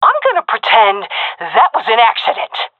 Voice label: taking fire friendly, seal fem; vo; Counter Strike Model Pack; CS:GO